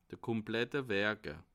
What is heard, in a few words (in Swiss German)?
"werike", alsace